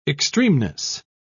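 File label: "extremeness", British English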